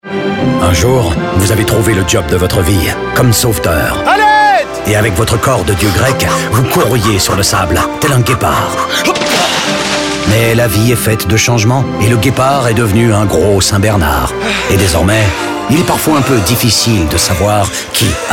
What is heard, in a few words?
fr; jobat; sauveteur